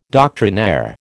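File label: "doctrinaire", American English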